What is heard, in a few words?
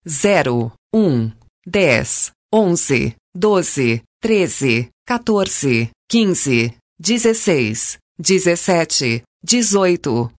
Dealer Voice, sk, Roulette; real Dealer European Roulette Vintage; pt-br